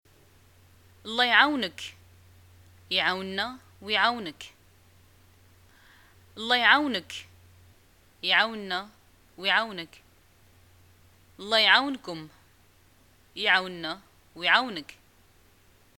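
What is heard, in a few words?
greeting farewell, Moroccan Arabic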